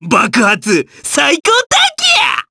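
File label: voice, Japanese; Lakrak; kingsraid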